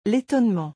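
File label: "L etonnement", French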